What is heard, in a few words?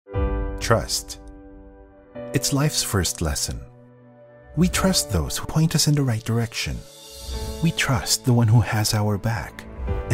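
CORPORATE, Male Voice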